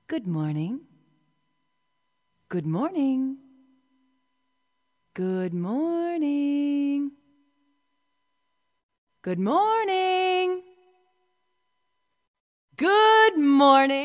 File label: female good morning